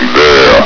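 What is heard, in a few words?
"there", male; hl2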